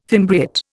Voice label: "fimbriate", Indian

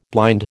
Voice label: "blind", American

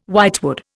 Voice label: "whitewood", Indian

